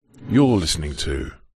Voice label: multimedia radio male voice says your listening to processed